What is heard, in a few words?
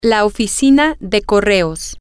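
the post office, Spanish, female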